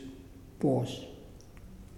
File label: Muyuw Grease; Lae Unitech